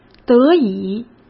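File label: "得以", Mandarin Chinese; chinese-flashcards; hsk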